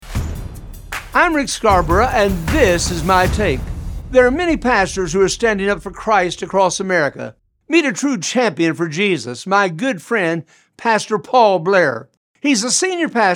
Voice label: Radio Spot